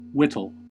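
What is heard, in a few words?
"whittle" English, US; pronunciations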